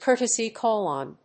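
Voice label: "courtesy call on", English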